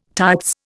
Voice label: "tights", Indian